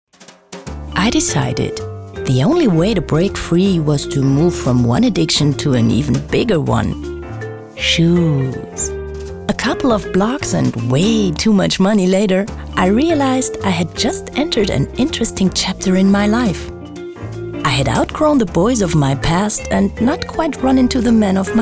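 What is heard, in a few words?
US English, Schauspiel Freundlich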